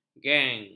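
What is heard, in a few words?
"geng", Malay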